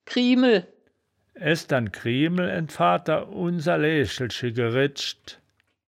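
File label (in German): "kriemel", wittlicher woerterbuch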